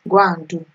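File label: "gwandu", Nigerian Pidgin, female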